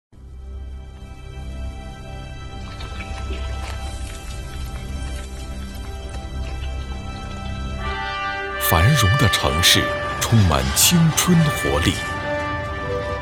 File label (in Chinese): 宣传片 企业类 成熟 大气 坚定 自豪